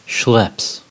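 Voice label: "schlepps", US English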